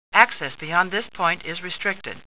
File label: Star Trek Computer Voice